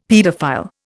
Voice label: "paedophile", Indian; Dictionary

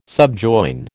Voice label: "subjoin", American

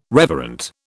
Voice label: "reverent", British

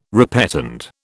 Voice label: "repetend", British

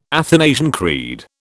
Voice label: "Athanasian Creed", British

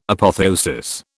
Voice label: "Apotheosis", British

